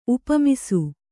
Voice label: "ಉಪಮಿಸು", kannada